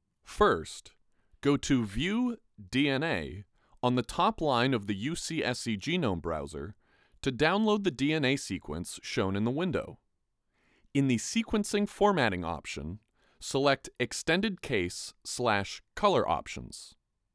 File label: Voiceover